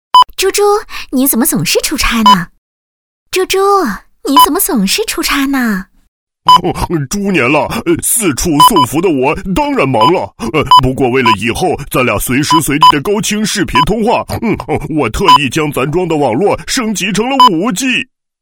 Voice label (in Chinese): 女 角色; 猪八戒和媳妇